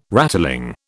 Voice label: "rattling", British